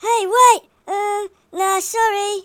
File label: hurry, speech, Hippy